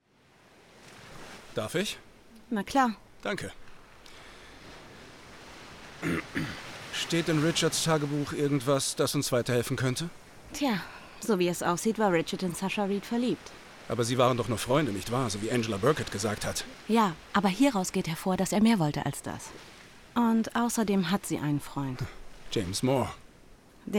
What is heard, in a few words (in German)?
Synchron Dialog